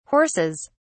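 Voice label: "horses", British English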